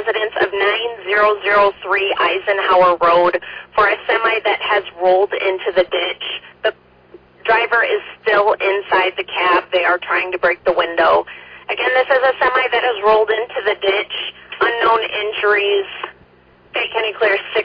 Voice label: Arlington Fire, dispatch

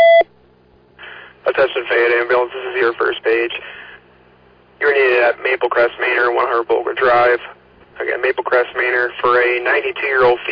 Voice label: Ambulance, dispatch

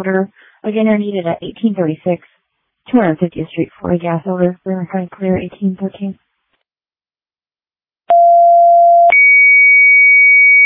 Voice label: Fire, dispatch

